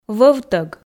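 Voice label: "вӧвтӧг", Komi, female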